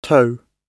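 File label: "tow" British English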